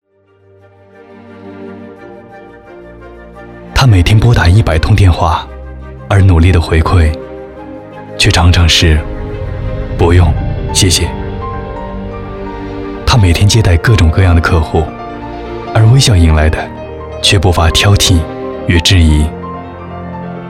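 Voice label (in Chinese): 男国大音【旁白】; 生活妥协不了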